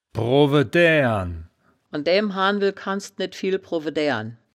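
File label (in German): "browe daeaeren", wittlicher; browedäären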